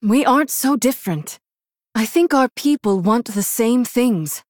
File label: Female Human; Jaina